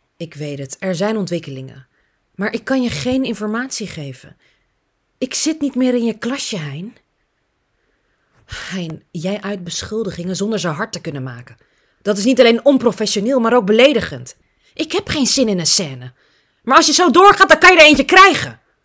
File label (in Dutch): verhalend boos